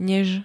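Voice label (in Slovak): "nez", Slovak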